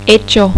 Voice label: "hecho", Spanish